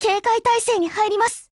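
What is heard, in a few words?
defense, Japanese; CAR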